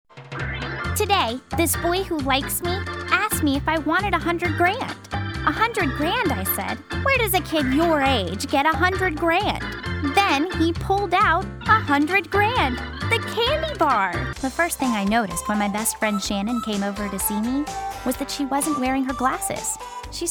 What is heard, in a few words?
Commercial